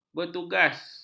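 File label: "bertugas", Malay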